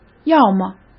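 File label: "要么", Mandarin Chinese; chinese-flashcards; hsk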